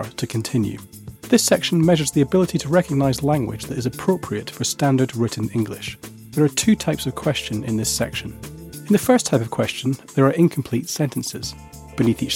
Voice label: E-Learning